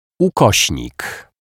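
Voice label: "ukosnik", Polish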